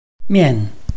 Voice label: "mian4", Chinese

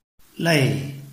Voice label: "lei4", Chinese

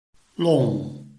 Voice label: "long4", Chinese

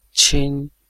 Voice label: "qin1", Chinese

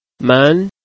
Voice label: "men2", Chinese

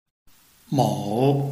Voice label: "mou3", Chinese

